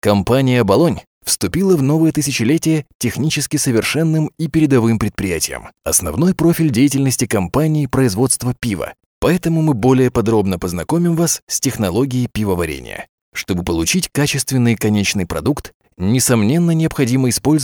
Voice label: sredniy rus